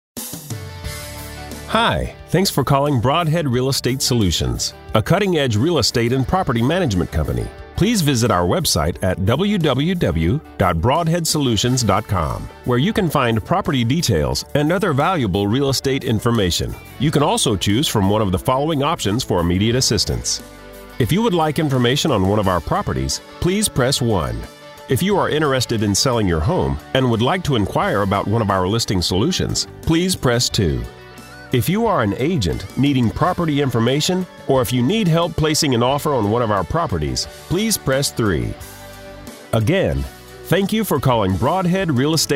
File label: Voice Mail